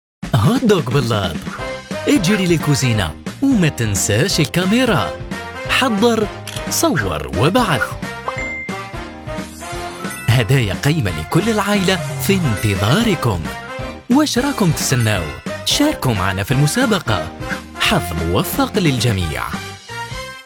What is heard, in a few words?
Algerian; algerian voiceover